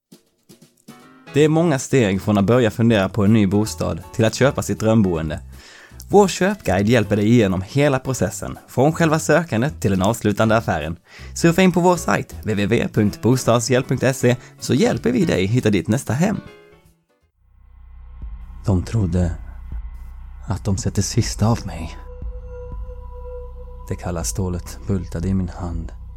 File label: Swedish Voicereel; Scandinavian, Swedish, Male, Studio, 30s-40s. Based in Sweden.